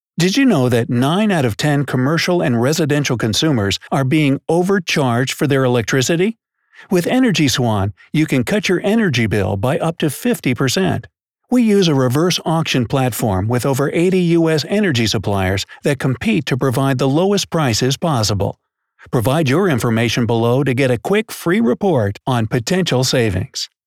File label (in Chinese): 外籍配音美式男 能源保护 轻快 有力